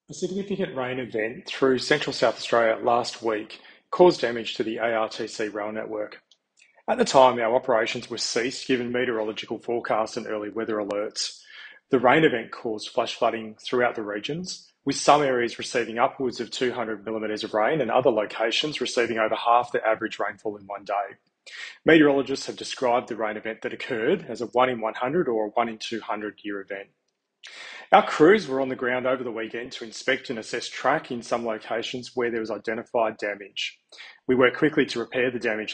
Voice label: Radio grabs